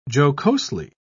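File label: "jocosely", British English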